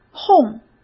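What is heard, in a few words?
"哄", Mandarin Chinese; chinese flashcards; hsk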